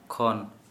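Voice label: "from", Santali, male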